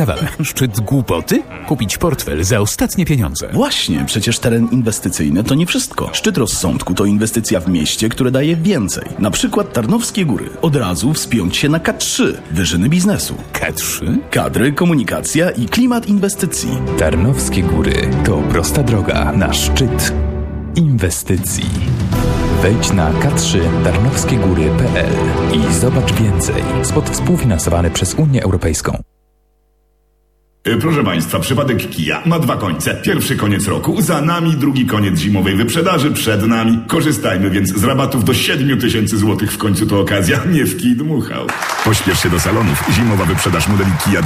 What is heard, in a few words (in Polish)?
blok reklamowy radio; radio plus slask